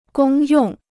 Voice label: "公用", Chinese